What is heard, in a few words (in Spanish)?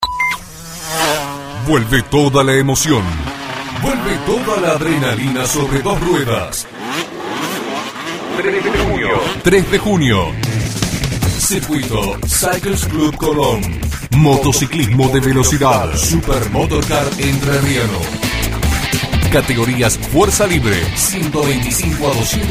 Voces Masculinas